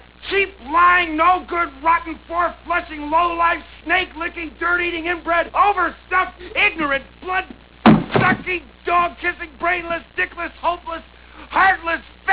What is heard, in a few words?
rant